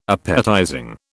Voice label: "appetizing", British